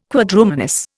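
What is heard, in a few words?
"Quadrumanous", Indian English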